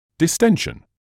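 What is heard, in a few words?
"distension", British English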